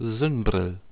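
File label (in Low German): "suennbrill" Low German, sunglasses; tdegames; ktuberling; nds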